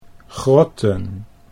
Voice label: "grotten", Dutch

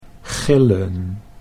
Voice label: "gillen", Dutch

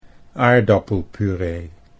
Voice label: "aardappelpuree", Dutch

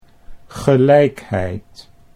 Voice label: "gelijkheid", Dutch

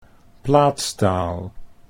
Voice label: "plaatstaal", Dutch

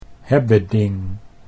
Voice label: "hebbeding", Dutch

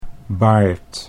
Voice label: "baard", Dutch

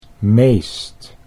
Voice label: "meest", Dutch

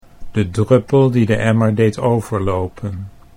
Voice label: de druppel die de emmer, Dutch

